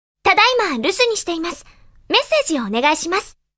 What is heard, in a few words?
japanese message